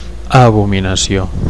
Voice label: "abominacio", Catalan